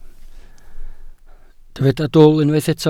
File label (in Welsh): "dwl", Welsh